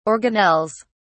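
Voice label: "organelles", British English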